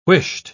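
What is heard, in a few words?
"whisht", British English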